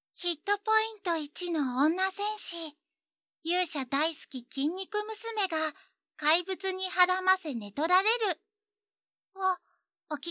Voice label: フリートーク, ASMR

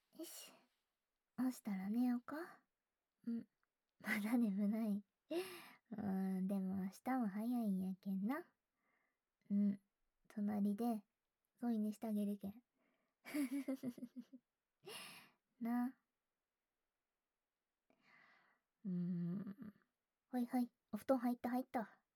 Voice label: 添い寝ささやき, ASMR; 甘々時間

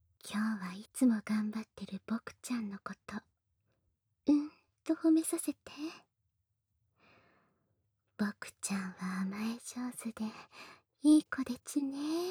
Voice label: 赤ちゃん甘やかし褒め褒めボイス

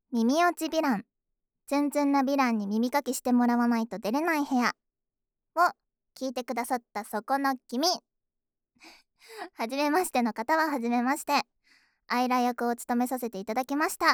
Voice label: フリートーク, ASMR

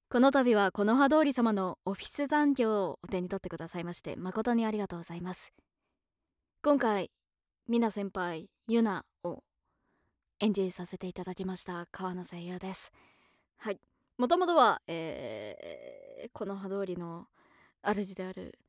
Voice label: フリートーク

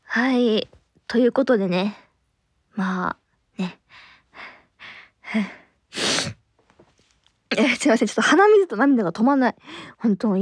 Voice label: ピロートーク

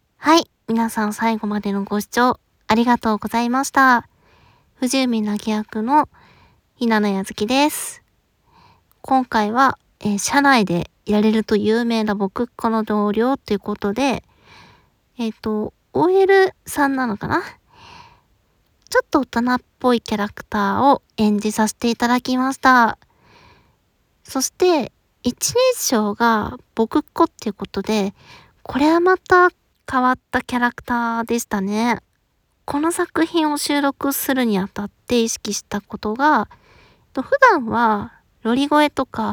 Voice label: フリートーク, ASMR

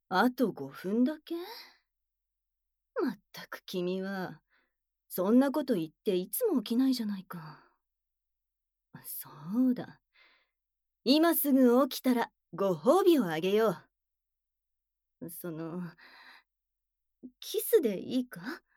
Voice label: アラームボイス; むらた屋

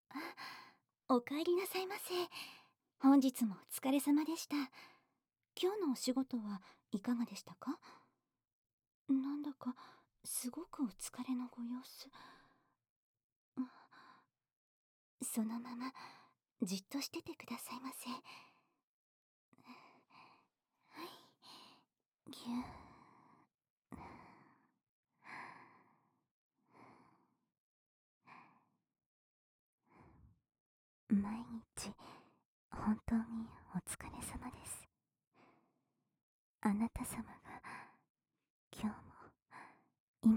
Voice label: 癒やしと労いの「おかえりなさいませ」; 日常音声

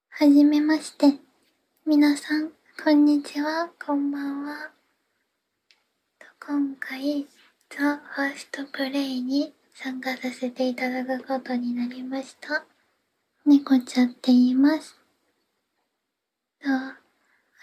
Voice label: 自己紹介, ASMR

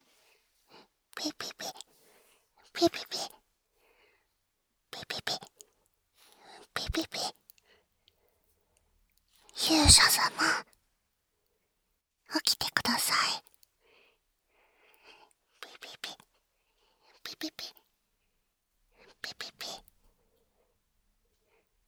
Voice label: くちゅ無し版 目覚めのキスによる目覚まし音声; キノコくちゅ無し版

